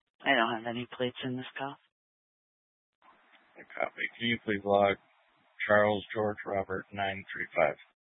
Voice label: Police Dispatch